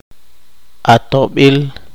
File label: poqomchi; favor